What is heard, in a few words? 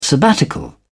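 "sabbatical", British English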